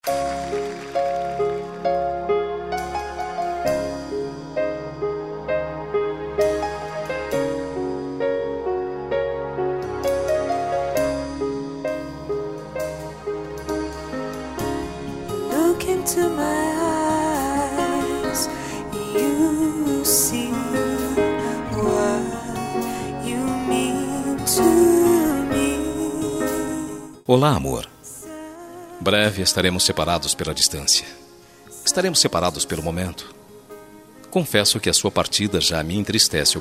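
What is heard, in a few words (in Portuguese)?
BOA VIAGEM ROMANTICA male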